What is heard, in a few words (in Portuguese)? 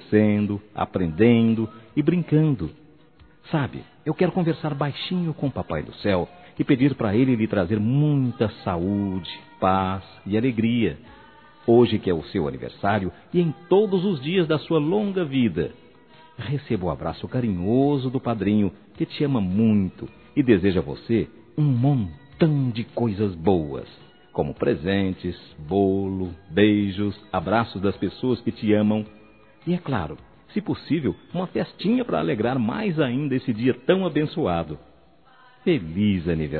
AFILHADO(A) Criança Masculino; Telemensagens 2017; Aniversários; Aniversário Infantil